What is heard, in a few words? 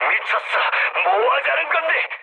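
Korean player killed ally mood low; War Thunder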